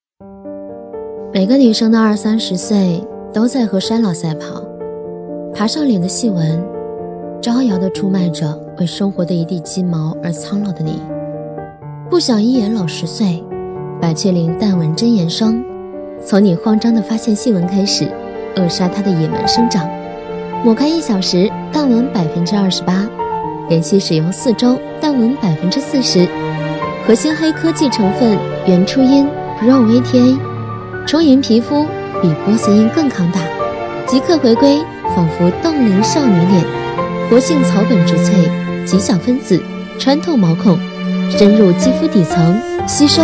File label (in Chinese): 女 TVC广告; 百雀羚